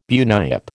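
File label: "bunyip", American English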